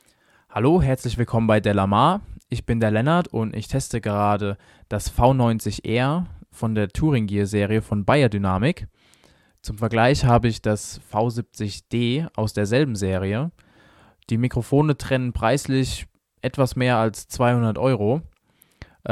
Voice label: beyerdynamic tg v90r

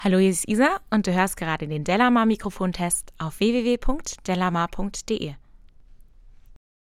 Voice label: speech f; thomann sct800

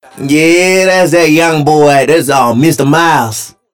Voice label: vocal delay 20ms loud

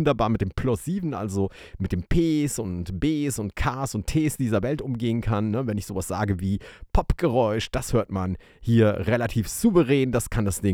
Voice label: shure sm7db no preamp

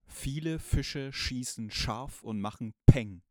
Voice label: shure beta 58a plosive

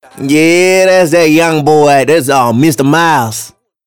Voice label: vocal delay 20ms normal

